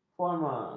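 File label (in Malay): "formal", Malay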